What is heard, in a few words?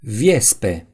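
"wasp", Romanian; gcompris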